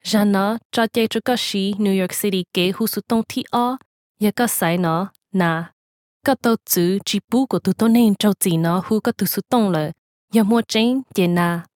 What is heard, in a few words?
Hmong IVR